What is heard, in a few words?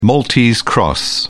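"maltese cross", English, uk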